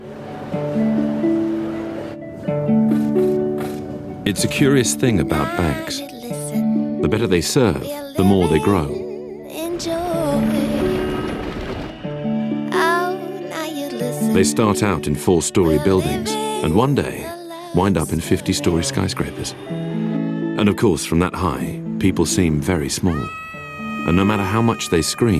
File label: spots